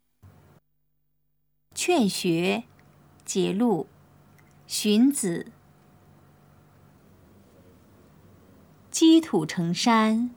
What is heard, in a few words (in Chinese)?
putonghua; 勸學（節錄）; 普通話